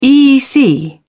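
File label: "eec", British English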